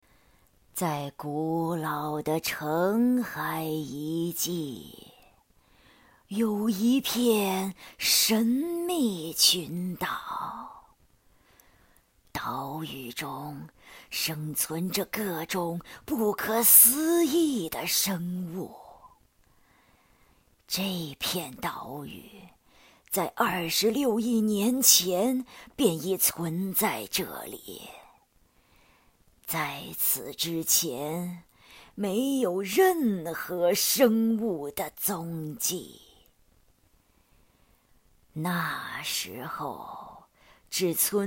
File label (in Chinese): 老妇人